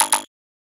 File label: client worried; Atelier